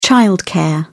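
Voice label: "childcare", British English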